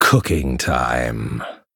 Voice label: Male Human Attack

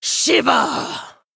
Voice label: Female Troll Attack

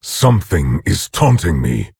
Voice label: Orc Emote ERROR TAUNT; Nerzhul

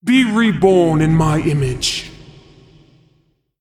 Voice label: Female Titan Trigger

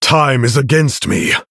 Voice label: Male Human Time

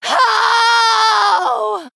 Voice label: Female Draenei Death

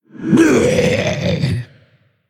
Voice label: Female Tauren Undead Death

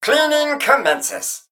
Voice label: Male Mech Play

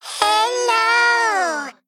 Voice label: Male Mech Error Stealth

